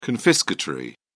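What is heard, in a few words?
"confiscatory", British English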